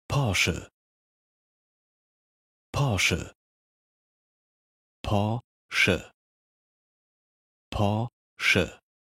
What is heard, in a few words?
How to pronounce Porsche